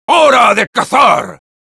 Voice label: Sniper battle cry, es; "¡Hora de cazar!"

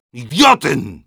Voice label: Medic negative vocalization, es

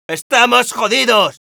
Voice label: Scout negative vocalization, es; ¡Estamos jodidos!

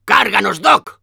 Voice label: Demoman activate charge, es

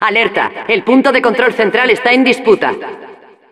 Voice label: Announcer alert center control being contested; ¡Alerta! ¡El punto de control central está en disputa!

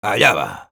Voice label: positive vocalization; Sniper

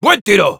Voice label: Sniper nice shot, es; ¡Buen tiro!

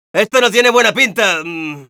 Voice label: Scout negative vocalization, es

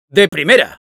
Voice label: positive vocalization

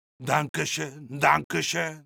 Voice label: positive vocalization, es; Medic

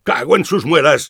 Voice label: Engineer negative vocalization, es

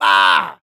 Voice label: Demoman negative vocalization, es

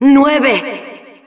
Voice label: Announcer ends 6 sec, es

